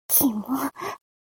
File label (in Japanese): mob 女子 台詞